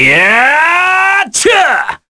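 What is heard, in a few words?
Vox, Korean; Baudouin; kingsraid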